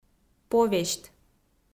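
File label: "повесьт", Komi, female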